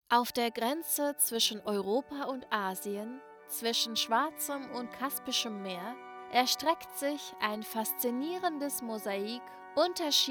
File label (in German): Kaukasus Naturdoku Musik